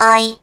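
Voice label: Turret pickup, Russian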